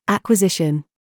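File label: TRAP, words, British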